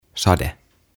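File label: "Sade", "Rain", Finnish, English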